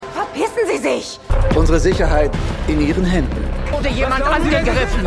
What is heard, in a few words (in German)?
Frau, Mann; Mann Kappe; Slow Horses; Stimmproben; Ein Fall fuer Jackson Lamb; UK